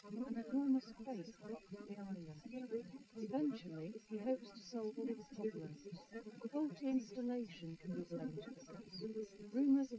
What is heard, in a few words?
female liverec; SiSEC11; underdetermined